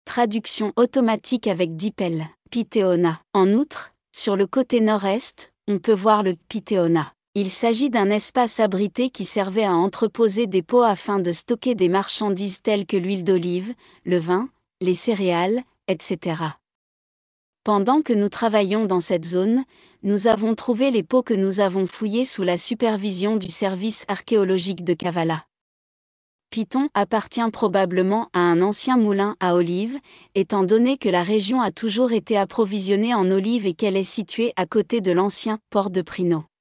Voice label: french, Visite guidée audio; Πιθεώνας; Pitheonas